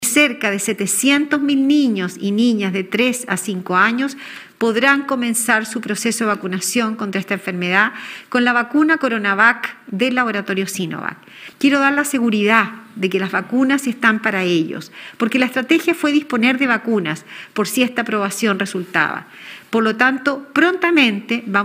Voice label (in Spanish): cuña